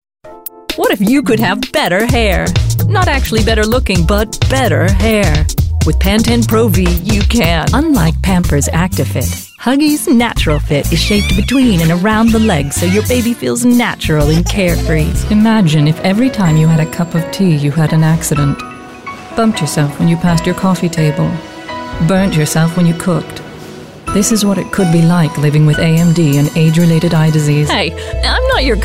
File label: English US